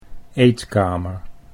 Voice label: "eetkamer", Dutch

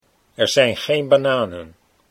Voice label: er zijn geen, Dutch

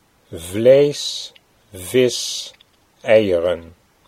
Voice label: "vlees vis", Dutch

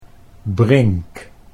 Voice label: "brink", Dutch

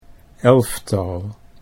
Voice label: "elftal", Dutch

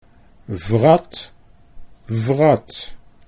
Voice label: wrat vrat, Dutch

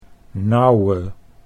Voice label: "nauwe", Dutch

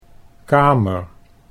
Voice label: "kamer", Dutch; 'room'

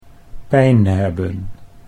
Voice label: "pijn hebben", Dutch

